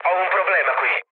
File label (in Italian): aircraft damaged, mood low, speech it